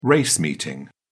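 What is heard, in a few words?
"race meeting", English, uk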